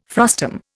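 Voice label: "frustum", Indian English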